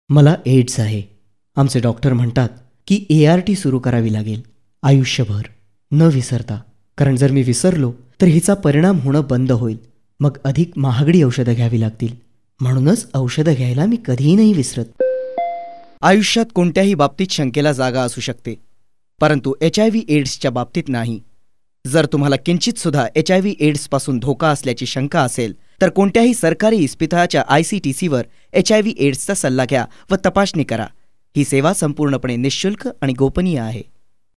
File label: Marathi Male